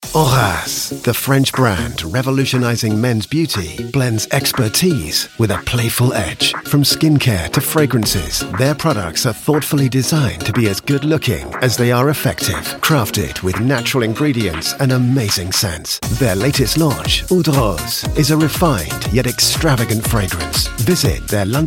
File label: commercial, Comedy Voiceover